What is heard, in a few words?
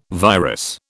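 "virus", British English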